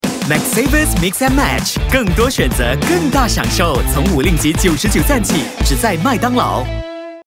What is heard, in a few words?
chinese mandarin announcements, voice actors